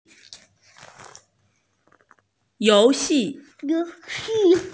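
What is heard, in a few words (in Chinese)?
"you2xi4", Mandarin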